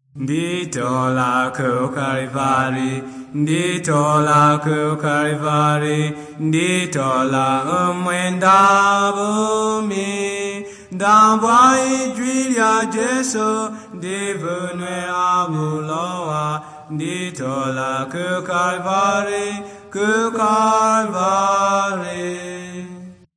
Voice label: words of life tonga toka, drama; chitonga bsz nt drama